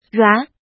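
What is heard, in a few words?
"ruá", Mandarin Chinese; 挼; （纸、布等）皱；不平展; （布）快要磨破; 这张纸～了。; 衬衫穿了几年，都～了。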